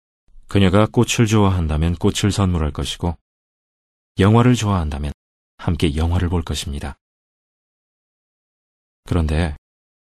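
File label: Korean, male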